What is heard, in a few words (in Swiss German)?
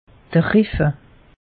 "riffe", alsace; meteo